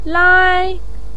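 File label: "lai", Mandarin Chinese, first tone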